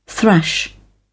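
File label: "thrash", British English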